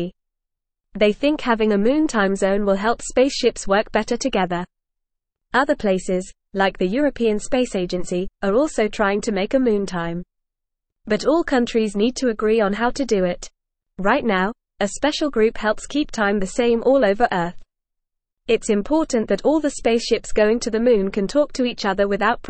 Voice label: English Newsroom Beginner FAST Reading; Moon Time A New Time Zone for Space